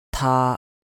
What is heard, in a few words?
"taa1", cantonese